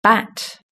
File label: "bat", british english